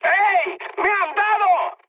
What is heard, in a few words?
aircraft destroyed mood high; speech; War Thunder